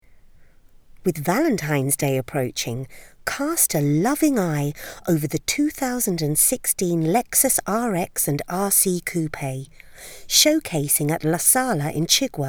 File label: Valentine Voice Over; Lexus